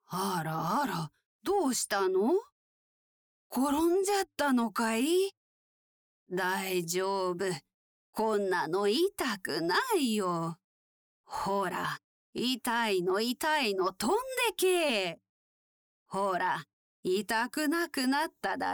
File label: voice sample, Old Lady